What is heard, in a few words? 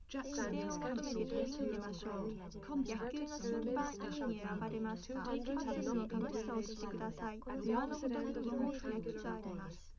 female liverec 130ms 1m mix; SiSEC10; underdetermined